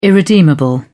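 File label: "irredeemable", British English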